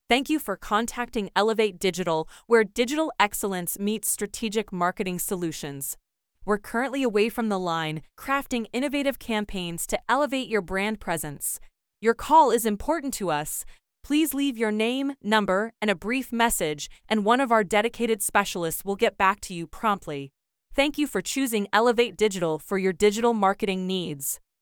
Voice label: VOICE GREETING; Descript